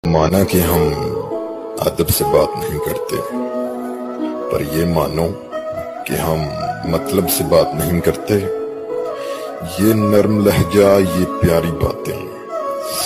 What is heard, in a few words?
Shayari Ringtone